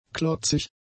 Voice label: "klotzig", German; adjectives

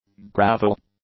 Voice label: "gravel", English; nouns

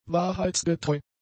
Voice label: "wahrheitsgetreu", German; adjectives

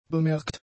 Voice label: "bemerkt", German; adjectives

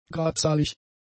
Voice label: "gradzahlig", German; adjectives

